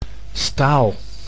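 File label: "staal", Dutch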